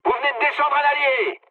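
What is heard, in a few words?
player killed ally, mood medium, French; War Thunder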